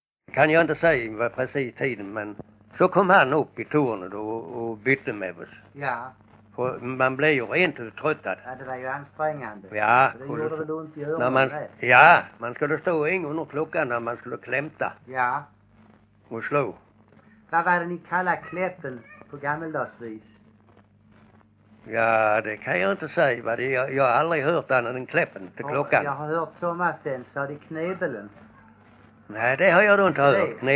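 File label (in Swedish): Bosjökloster Skåne, dialekter svenska sagor; Folkminnen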